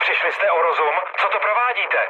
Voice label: player killed ally, speech cze, mood low; War Thunder